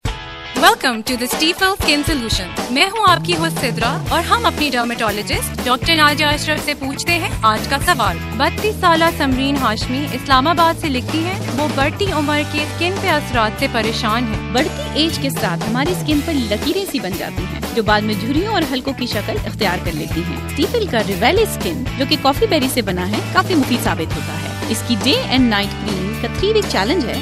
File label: spot, radio